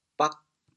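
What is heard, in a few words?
"bag8", Teochew, chaoyang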